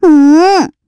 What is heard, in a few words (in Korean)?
Vox Deny, kr; voices; heroes; Estelle; kingsraid